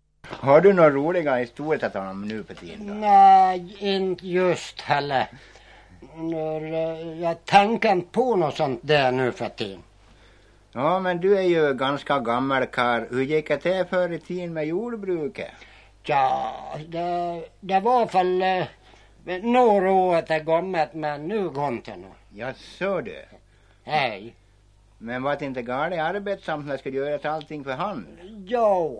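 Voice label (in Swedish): Sundborn Dalarna, dialekter; Folkminnen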